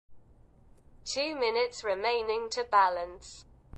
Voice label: two mins british